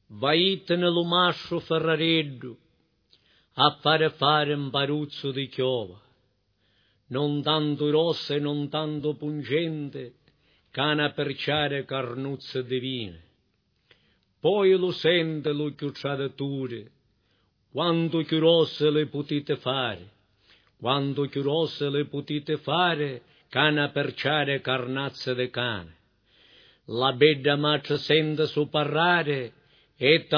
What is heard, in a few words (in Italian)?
passione e morti recitato